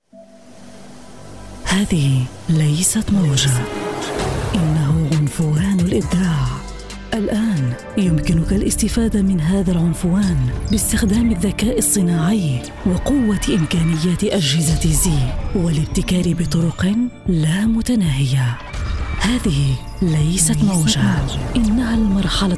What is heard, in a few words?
commercial; HP commercial